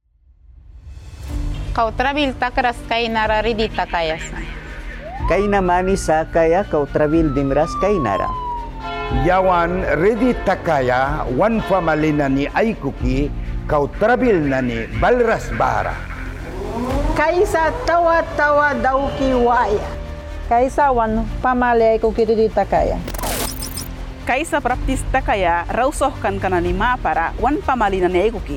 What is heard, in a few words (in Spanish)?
Vineta Radial Miskito; Waspan; III Ejercicio Nacional